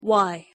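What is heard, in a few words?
"y" pronunciation English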